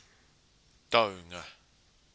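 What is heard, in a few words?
"taunga", Māori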